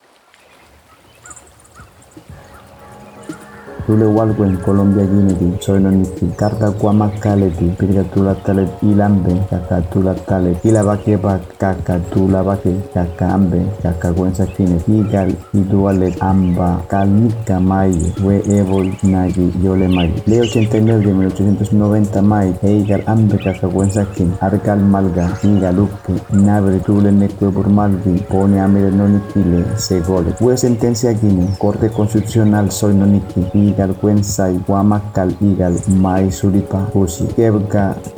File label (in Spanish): gunadule